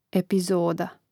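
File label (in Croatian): "epizoda", hrvatski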